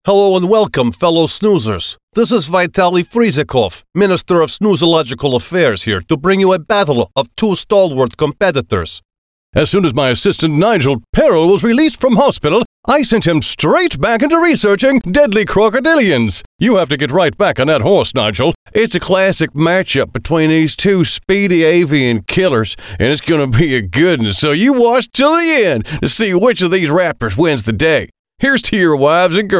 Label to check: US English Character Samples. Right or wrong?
Right